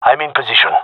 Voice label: in position, gendermerie male